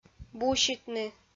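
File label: "буситны", Komi-Zyrian, female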